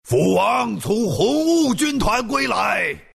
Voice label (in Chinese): respawn, zh; Dota 2